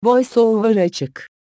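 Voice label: Turkish VoiceOver On; EFI Audio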